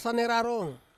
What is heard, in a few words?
"sane rarong" liver, Ansus